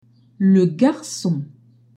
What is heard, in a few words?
"garcon", French